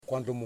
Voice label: "Ear", Yimas

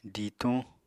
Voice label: "dituñ", Apatani

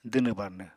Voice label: "dwnw-barnw", Apatani

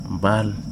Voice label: "fish", Wahgi; lae unitech

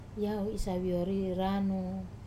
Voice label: "yau isaviori ranu", I whistle a song, wamesa

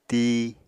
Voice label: "tii", apatani

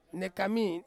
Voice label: "nekami", seed, Ansus

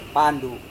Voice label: "pandu", Serewen

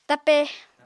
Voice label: "tape", apatani

